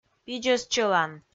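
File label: "пидзӧс чӧлан", Komi, female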